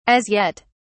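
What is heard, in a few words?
"as yet", British English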